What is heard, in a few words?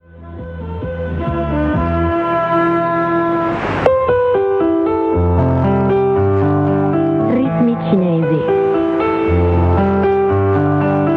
17520 kHz italiano; China Radio International; Degen DE 1103; filo 5m indoor; St. Urse